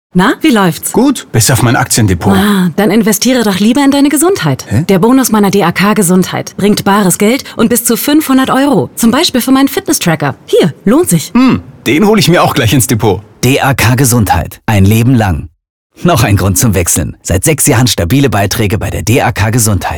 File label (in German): Funk Spot Raum; PuK; DAK